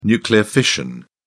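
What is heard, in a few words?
"nuclear fission", British English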